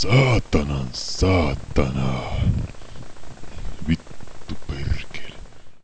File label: library voice